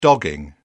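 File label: "dogging", British English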